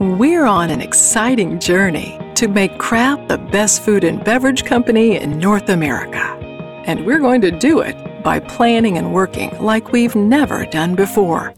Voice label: Narration; Kraft